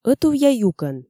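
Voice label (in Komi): ӧтувъя юкӧн, Komi, female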